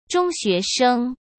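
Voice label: "中学生", Mandarin Chinese; hsk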